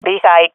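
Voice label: loc b, swat female; vo; agents; Source Model Packs; Counter Strike Model Pack; CS2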